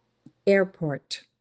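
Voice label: "airport", English; useful words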